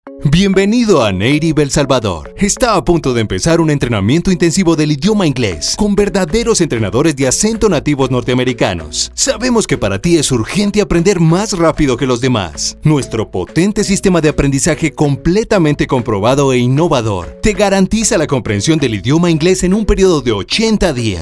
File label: with music; native academy